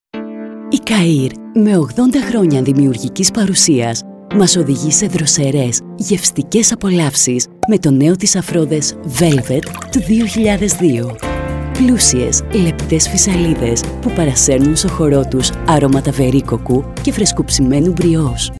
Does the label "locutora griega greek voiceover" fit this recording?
Yes